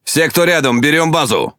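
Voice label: Russian Speech Quick Commands Enemy Base; World Of Warships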